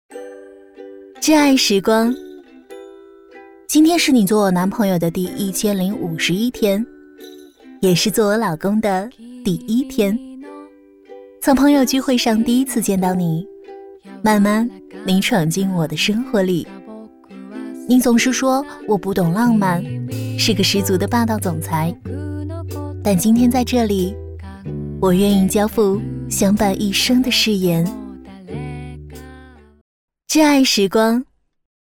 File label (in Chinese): 女 旁白 星座摄影